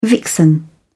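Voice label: "vixen", British English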